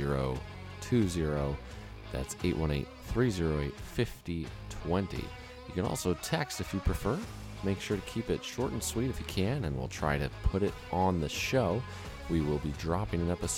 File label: Voicemail; Plug